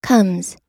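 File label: "comes", US English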